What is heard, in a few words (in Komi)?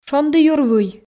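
"шондіюр вый", Komi, female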